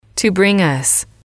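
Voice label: "to bring us", English, female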